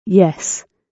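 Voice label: "yes", British English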